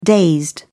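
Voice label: "dazed", English, British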